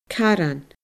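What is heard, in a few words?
"caran", Scottish Gaelic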